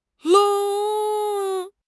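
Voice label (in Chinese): "愣", Chinese; HSK; HSK6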